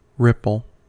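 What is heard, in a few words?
"ripple", US English; pronunciations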